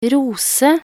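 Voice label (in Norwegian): "rose", Norwegian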